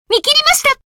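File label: finish, Japanese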